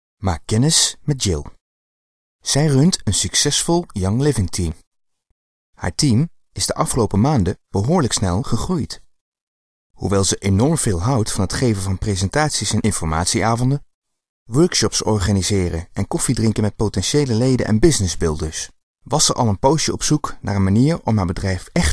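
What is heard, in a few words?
Dutch, male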